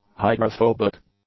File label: "hydrophobic", English; adjectives